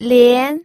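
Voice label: "lian", second tone, pinyin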